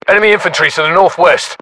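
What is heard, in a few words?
threat infantry northwest, British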